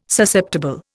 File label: "Susceptible", Indian